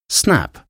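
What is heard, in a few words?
"snap", English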